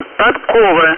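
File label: "Podkova", Russian; DCS; ATC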